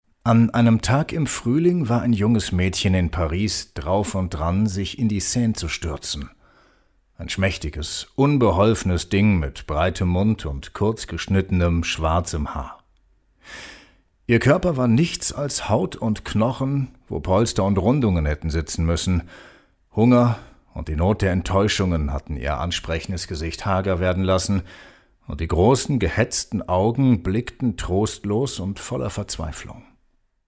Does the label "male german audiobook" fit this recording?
Yes